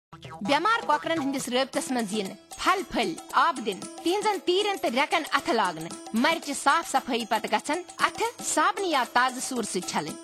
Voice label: Radio PSA (Kashmiri); Prevention; Hand Wash